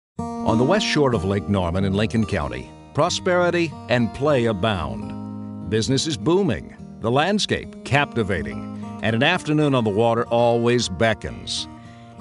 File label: voiceover